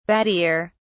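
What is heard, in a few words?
"battier", British English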